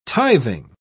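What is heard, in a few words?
"tithing", British English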